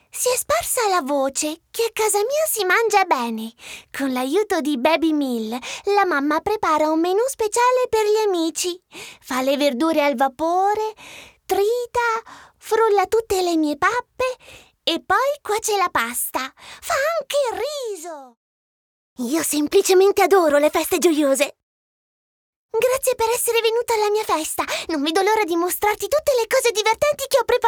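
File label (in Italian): caratteri bambini e personaggi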